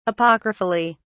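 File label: "apocryphally", British English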